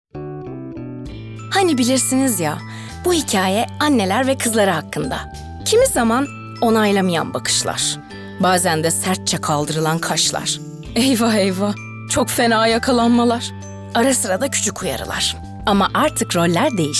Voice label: Sicak Sakin

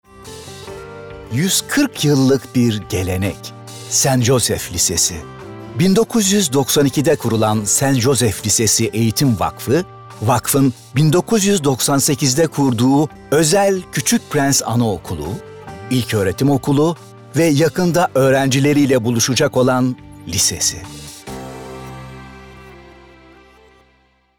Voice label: Tanitim

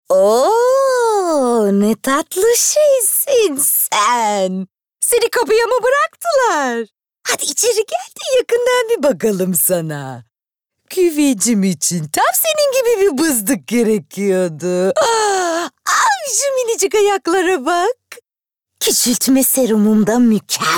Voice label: ANIMASYON

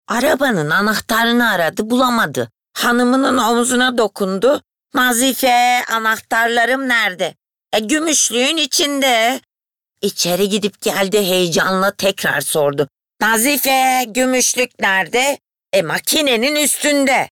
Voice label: ANIMASYON

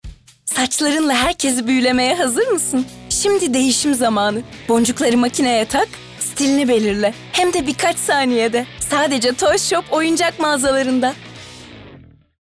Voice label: Promosyon

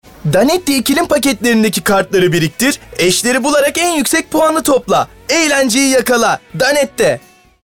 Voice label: Reklam

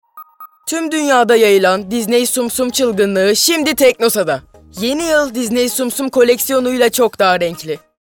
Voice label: Reklam

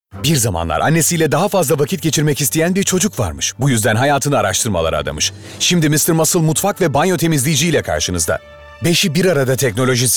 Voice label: Komik

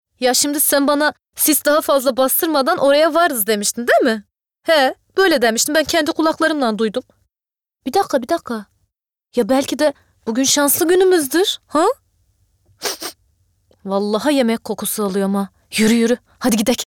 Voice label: Dogu Anadolu Lehcesi

